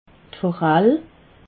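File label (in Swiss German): "forall", Alsatian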